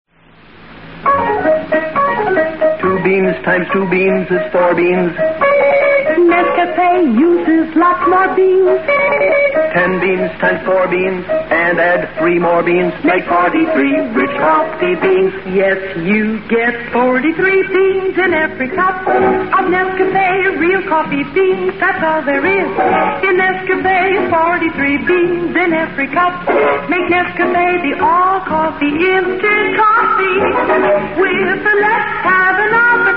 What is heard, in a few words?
vintage commercials; nescafe